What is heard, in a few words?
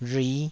"rhui5", taiwanese